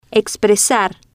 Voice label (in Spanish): "expresar", spanish